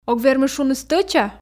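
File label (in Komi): ог вермы шуны стӧча, Komi, female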